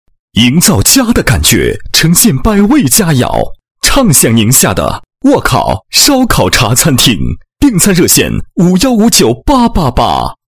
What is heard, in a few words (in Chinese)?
男 广告; 百味佳肴 推崇烧烤茶新模式